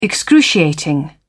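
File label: "excruciating", British English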